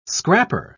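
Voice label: "scrapper", British English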